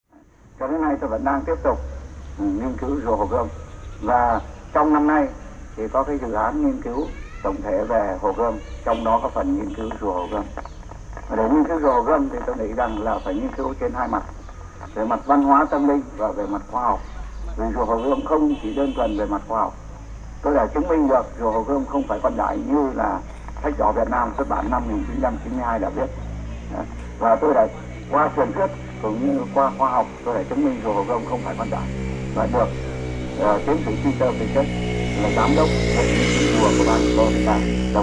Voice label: Voice, Vietnam